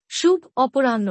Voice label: "good afternoon", bangla; Basic Greetings and Courtesy